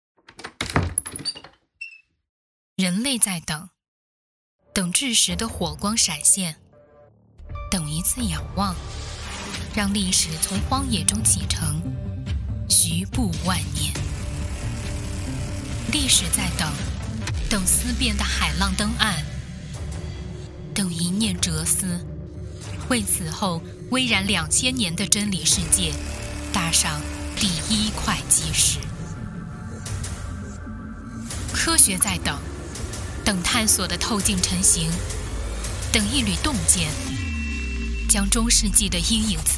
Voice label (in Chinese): 专题企业宣传成熟严谨